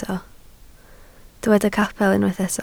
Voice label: "capel", Welsh